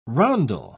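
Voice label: "rondel", English, British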